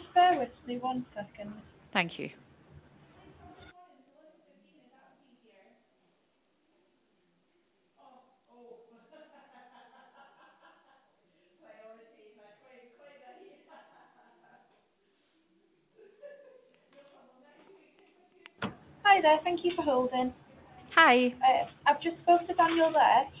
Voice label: call recording